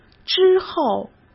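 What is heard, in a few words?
"之后", Mandarin Chinese; hsk; chinese-flashcards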